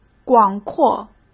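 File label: "广阔", Mandarin Chinese; hsk; chinese-flashcards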